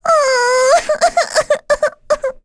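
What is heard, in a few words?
Vox Sad, English; Rehartna; kings raid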